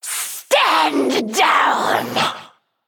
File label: Female Naga